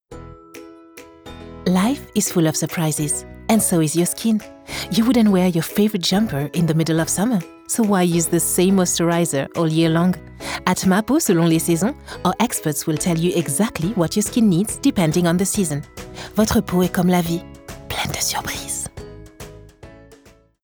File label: female french english with french accent; ma peau selon les saisons